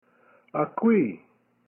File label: "Akewii", Kumeyaay; After